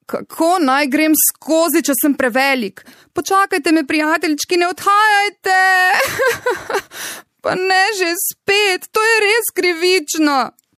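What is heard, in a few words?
slovenian female character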